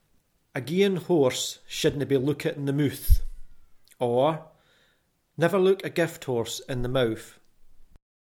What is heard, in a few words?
horse, Scots language